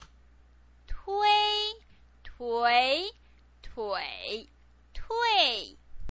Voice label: "tui", pinyin

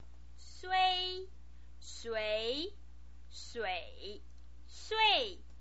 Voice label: "sui", pinyin

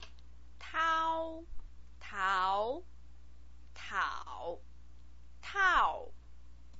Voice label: "tao", pinyin

